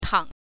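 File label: "tang", Mandarin Chinese, neutral tone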